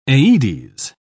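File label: "aedes", British English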